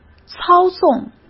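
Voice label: "操纵", Mandarin Chinese; hsk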